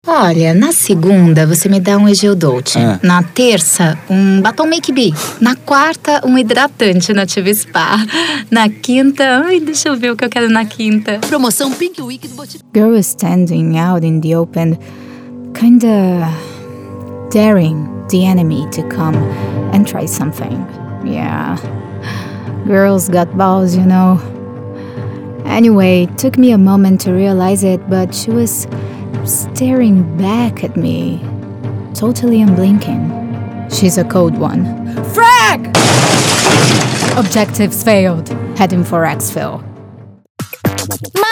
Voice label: ENGL PTBR; English (North American)

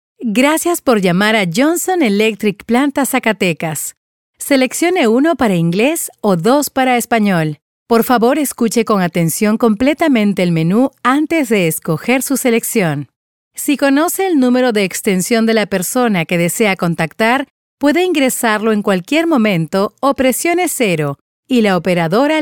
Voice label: IVR

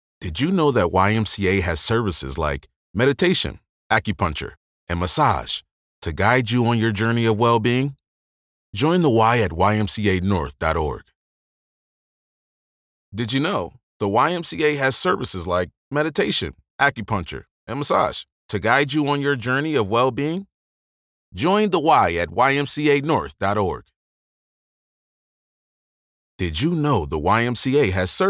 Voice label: Radio Voice; YMCA

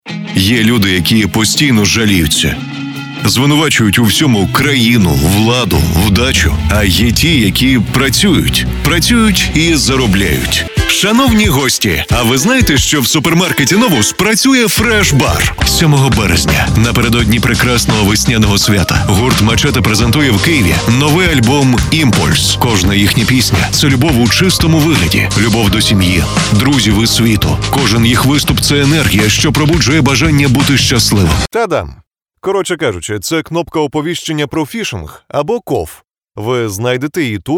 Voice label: Ukrainian

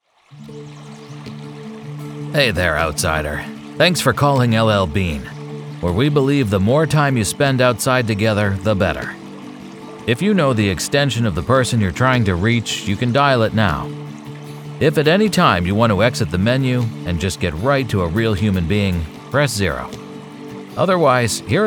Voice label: Phone System

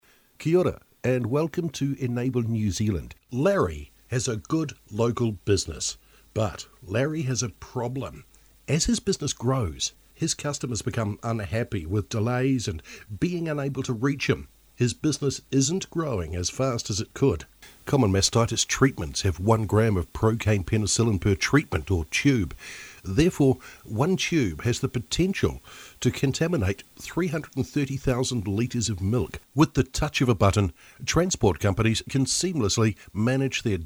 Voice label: Narration and Explainers

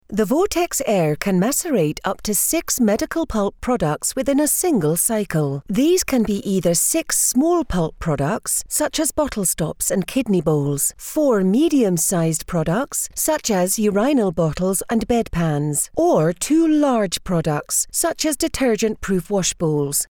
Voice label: Scottish Explainer

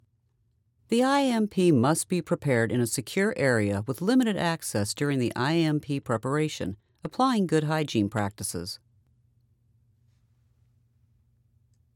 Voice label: eLearning explainer video

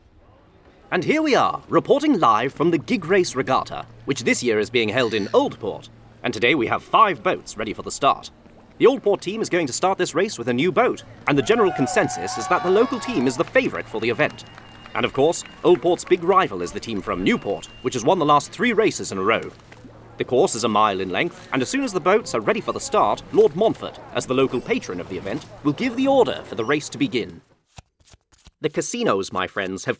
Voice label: Drama

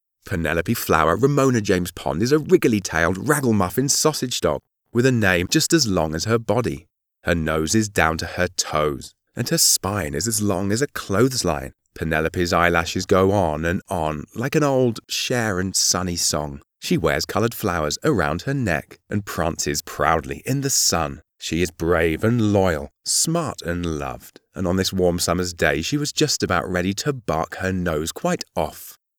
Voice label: audiobook kids

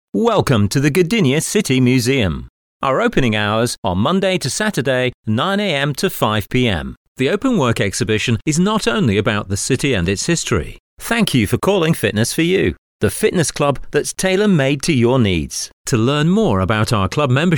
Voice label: on hold

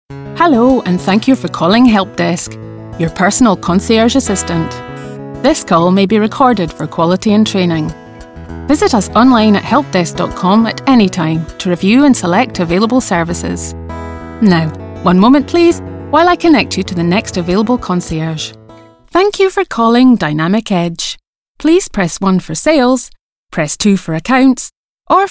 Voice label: ON HOLD

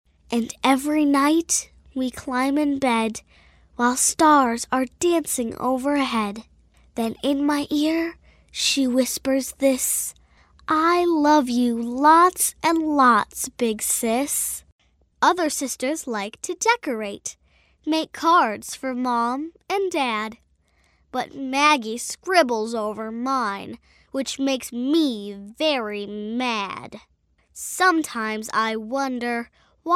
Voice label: AudioBooks

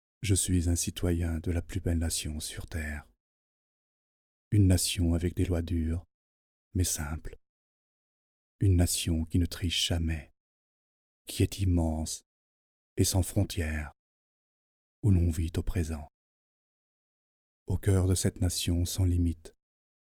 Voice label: French Movie Trailer VO

